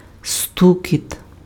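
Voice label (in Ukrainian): "стукіт", Ukrainian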